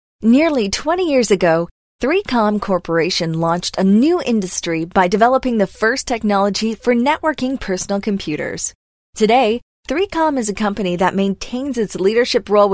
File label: english american corporate videos; english american voice actors